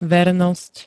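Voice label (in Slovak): "vernosť", Slovak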